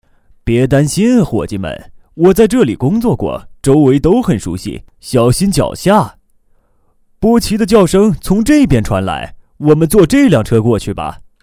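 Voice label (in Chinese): 男53 老年角色亲切自然; 超级小飞侠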